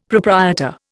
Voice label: "proprietor", Indian; Dictionary

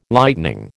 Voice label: "Lightening", American

